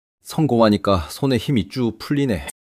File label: fluster